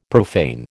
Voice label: "profane", American

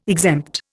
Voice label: "exempt", Indian

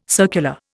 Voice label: "circular", Indian

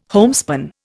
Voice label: "homespun", Indian

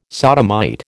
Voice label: "sodomite", American

